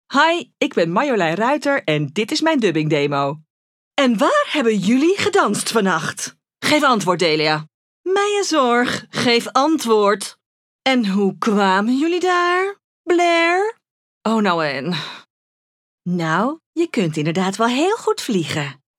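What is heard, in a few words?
doblaje, Dutch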